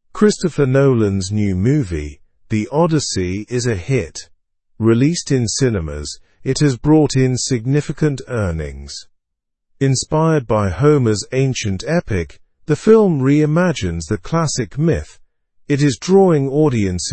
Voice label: english through news upper intermediate; the odyssey film renews interest in classic poem; slow